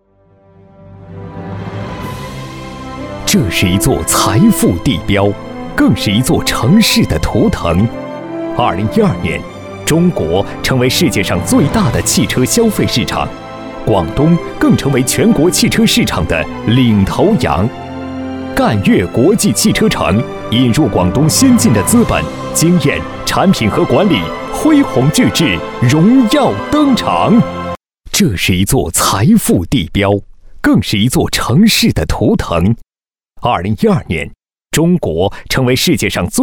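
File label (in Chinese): 男, 专题, 大气恢弘, 普通话; 赣粤国际汽车城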